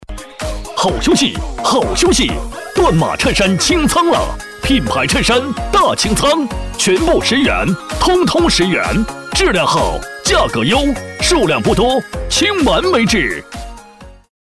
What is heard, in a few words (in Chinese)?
男 激情广告促销 清仓